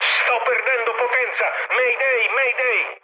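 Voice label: Italian damaged engine mood med, speech; War Thunder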